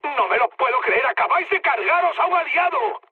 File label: player killed ally, mood high, speech; War Thunder